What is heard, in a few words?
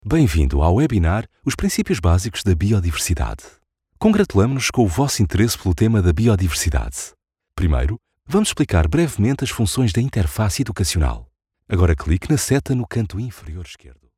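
Portuguese Elearning Tutorial reel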